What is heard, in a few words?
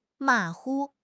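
"ma3 hu5", Mandarin Chinese; HSK; HSK4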